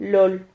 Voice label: "lol", Silabas

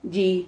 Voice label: "lli", Silabas

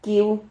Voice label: "quiu", Silabas

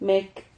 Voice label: "mec", Silabas

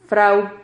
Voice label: "frau", Silabas

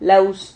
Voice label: "laus", Silabas

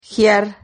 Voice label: "giar", Silabas

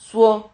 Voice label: "suo", Silabas

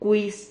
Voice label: "cuis", Silabas

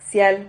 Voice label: "xial", Silabas

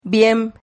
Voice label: "viem", Silabas

